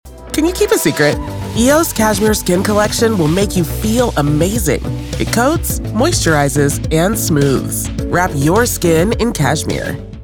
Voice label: Skincare-Intimate, Silky, Soft, Natural Speak, Female Voice Over; Character / Cartoon